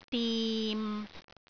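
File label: "dim6", Cantonese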